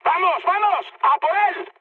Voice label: attack position, mood high, speech; War Thunder